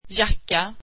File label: swedish jacket